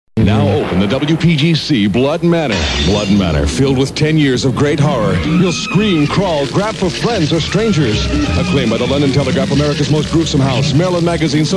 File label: spot; blood matter horror house